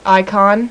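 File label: "icon", female, voice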